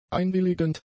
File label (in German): "einwilligend", german; adjectives